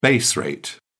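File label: "base rate", British English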